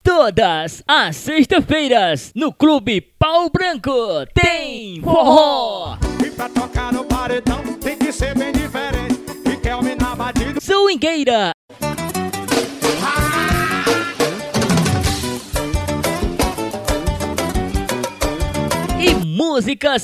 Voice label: locutor vinheta; club pau branco

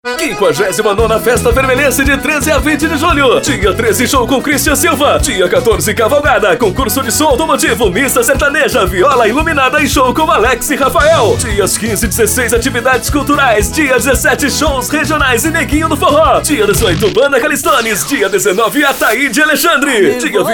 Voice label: spot; vermelhense 2013

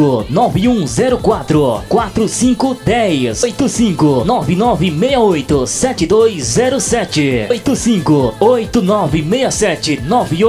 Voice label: locutor auto escola

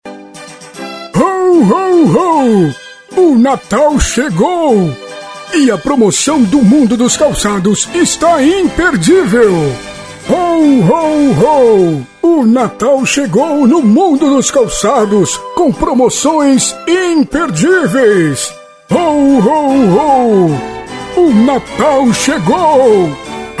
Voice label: voz papai noel; natal

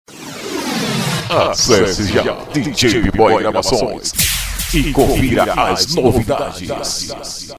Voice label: vinheta exclusiva reverb

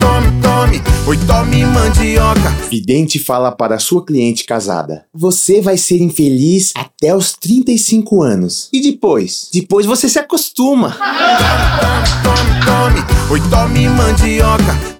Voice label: spot piada; rei da mandioca

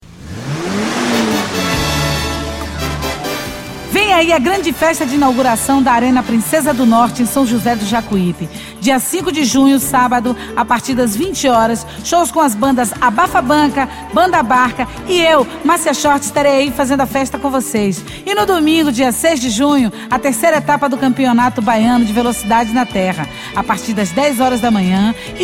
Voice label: spot de divulgacao; fala arena

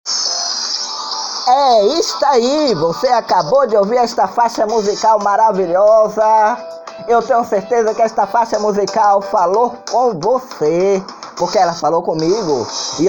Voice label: locucao

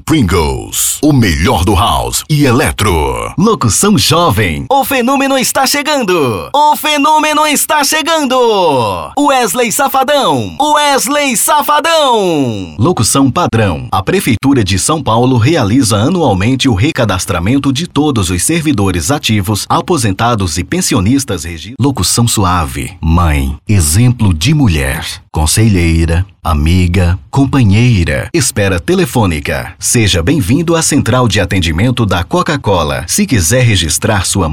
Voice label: VOZ IMPACTO GRAVE locutor vinheta banco de voz off jingle; oi zap

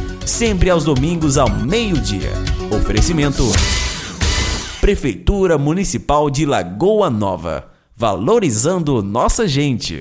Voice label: spot a voz do executivo

